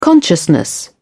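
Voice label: "consciousness", British English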